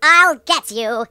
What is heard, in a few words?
"Gonna get you", British; hedgewars